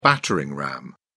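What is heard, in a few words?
"battering ram", British English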